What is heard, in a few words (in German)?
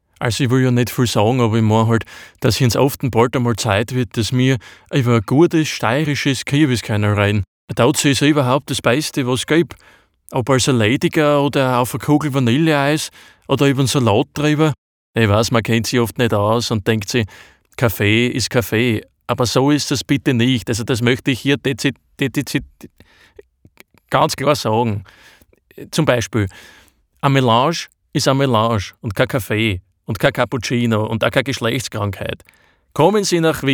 diverse Dialekte; Sprechproben